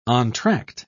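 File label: "entr'acte", English, British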